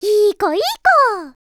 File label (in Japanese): いいこいいこっ, Voice Breath; Vocaloid 6.5.1; Otomachi; VOCALOID6 AI OtomachiUna Spicy